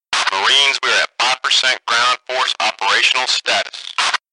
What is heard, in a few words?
Radio; Quake 2